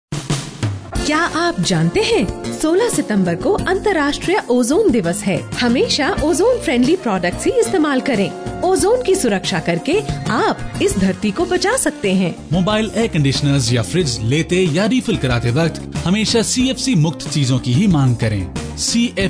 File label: intro HINDI; OZONE SONGS